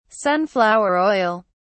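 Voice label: "sunflower oil", English, uk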